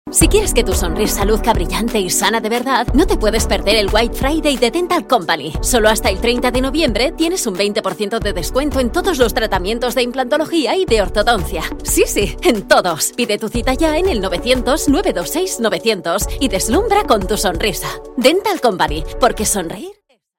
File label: spanish radio cuna positiva